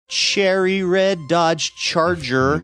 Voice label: saying cherry red dodge charger sing songy